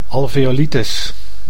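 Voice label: "alveolitis", Dutch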